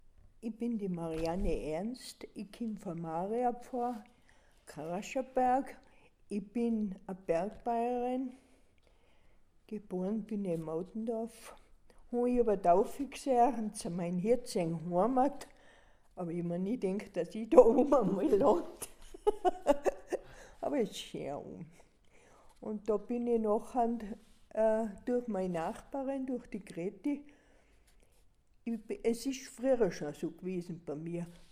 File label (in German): mundart